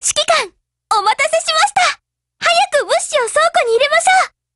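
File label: OPERATIONOVER, Japanese; GF Alarm; Carcano1891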